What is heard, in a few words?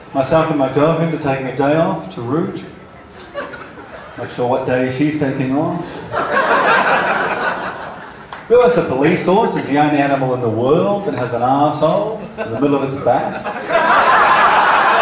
Live Stand Up Ringtone